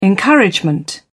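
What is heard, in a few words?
"encouragement", British English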